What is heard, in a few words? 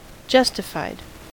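"justified", American English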